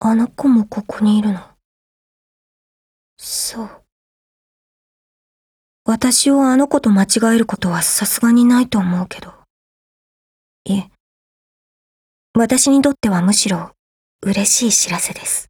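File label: dialogue Japanese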